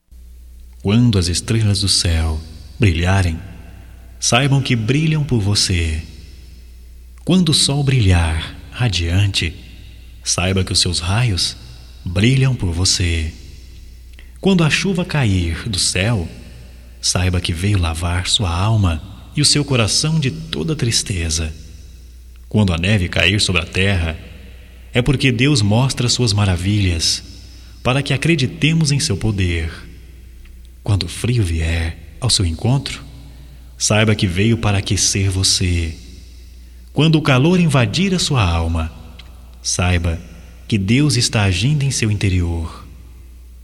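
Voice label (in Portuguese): EVANGELICA, Só Voz, masculino; Telemensagens; Telemensagens 2017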